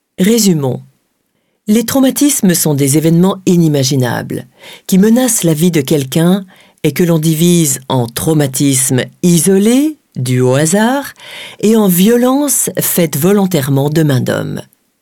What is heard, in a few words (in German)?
Französisch; NAWA